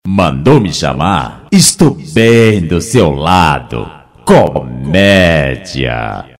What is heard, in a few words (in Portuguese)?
Vinheta